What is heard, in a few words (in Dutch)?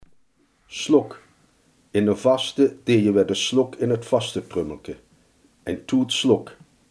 "snoepgoed", Limburgs